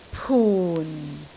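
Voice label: "pun4", Cantonese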